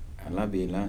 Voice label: product from boiling pieces of manioc root, Kibembe